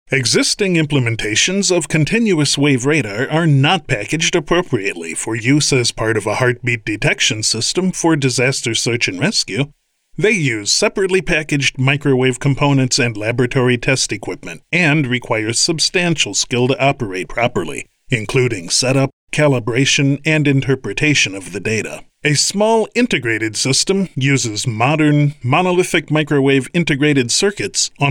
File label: elearn; Nasa